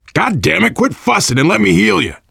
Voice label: heal other, voice, biker; Left 4 Dead 2; survivor